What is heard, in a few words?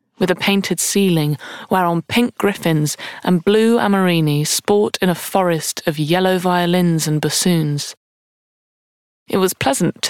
Audiobook Room